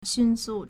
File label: "xun4su4", Chinese